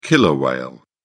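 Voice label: "killer whale", British English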